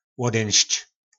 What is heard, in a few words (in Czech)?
"Łodynšč", nářečí Po našimu; Odejít